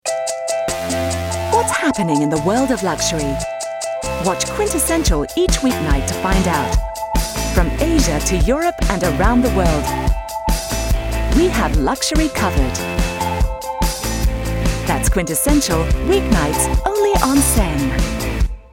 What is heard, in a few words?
promo; quintessential